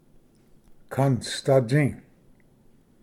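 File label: "kanasdatsi", Cherokee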